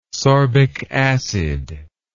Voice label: "sorbic acid", english